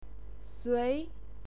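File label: "sui", Mandarin Chinese, second tone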